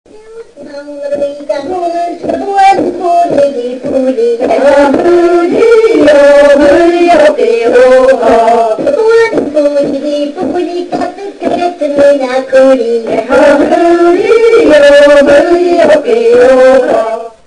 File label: gaelic